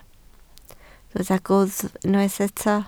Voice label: "gwddf", Welsh

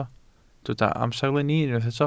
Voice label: "amserlenni", Welsh

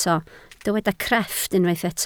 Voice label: "crefft", Welsh

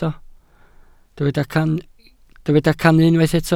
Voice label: "cannu", Welsh

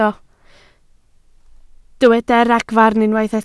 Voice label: "ragfarn", Welsh

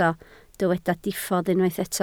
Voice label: "diffodd", Welsh

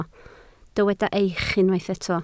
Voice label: "eich", Welsh

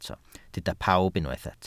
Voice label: "pawb", Welsh

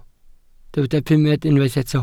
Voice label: "pumed", welsh

